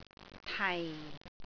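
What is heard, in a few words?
"tai4", Cantonese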